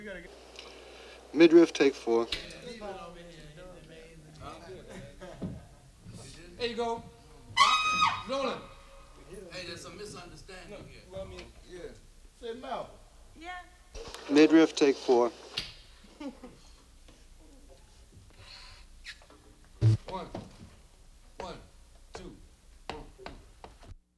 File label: only announcement